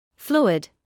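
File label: "fluid", British English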